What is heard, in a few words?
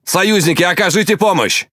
Ru Speech Sos; World Of Warships; Quick Commands